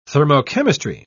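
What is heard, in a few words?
"thermochemistry", British English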